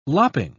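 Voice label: "lopping", British English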